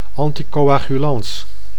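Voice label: "anticoagulans", Dutch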